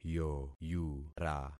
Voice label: "yoyura", concat syllables; Affixing experiment